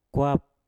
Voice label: "kwap", Mpur; noken